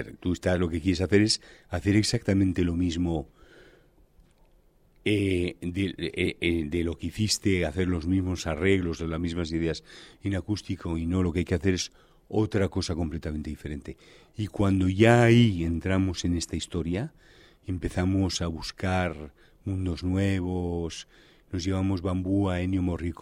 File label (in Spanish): cuña disco